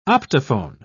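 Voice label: "optophone", British English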